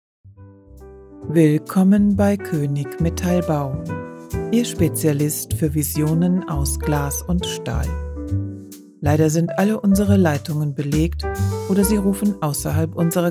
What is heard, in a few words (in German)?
Stimmprobe Anrufbeantworter Warteschleife